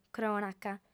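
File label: "crònaca", female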